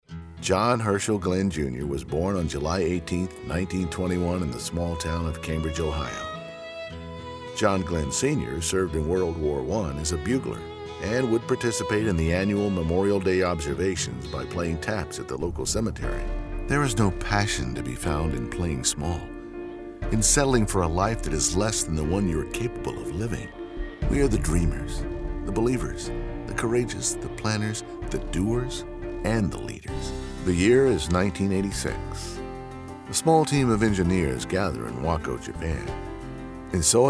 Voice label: Narration